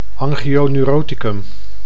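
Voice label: "angioneuroticum", Dutch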